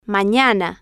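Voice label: "manana", Spanish, female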